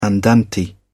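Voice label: "andante", English, British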